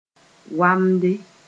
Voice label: "wamde", Mooré